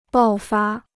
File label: "爆发", Chinese